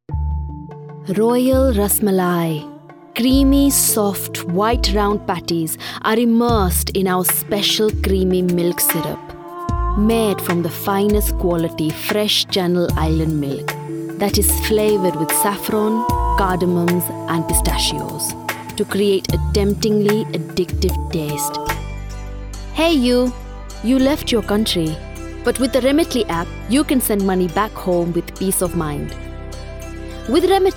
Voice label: Indian Accent